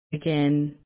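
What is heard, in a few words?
"again", Australian, female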